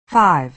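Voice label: "five", American English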